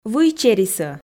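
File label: "выйчерисӧ", Komi, female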